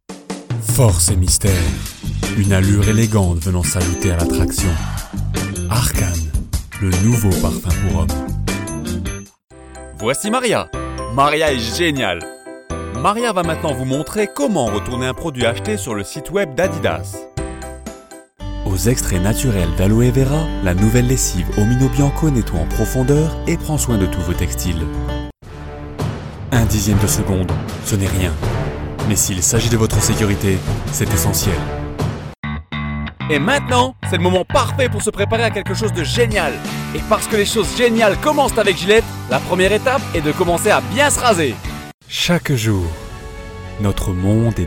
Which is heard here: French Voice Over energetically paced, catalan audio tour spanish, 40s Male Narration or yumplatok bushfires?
French Voice Over energetically paced